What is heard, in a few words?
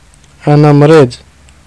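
im sick male, arabic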